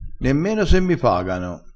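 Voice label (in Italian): "nemmeno se mi pagano", dialettale crespinese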